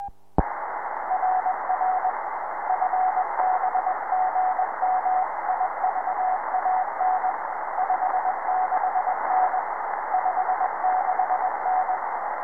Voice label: QSO